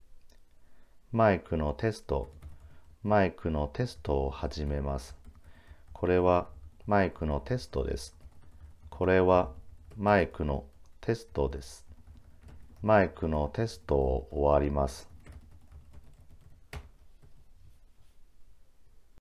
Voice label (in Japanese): サンプル音声4ピンマイクとキーボード入力